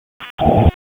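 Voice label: Police Southwest District Disp, radio; Raleigh; wake; p25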